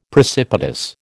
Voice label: "precipitous", American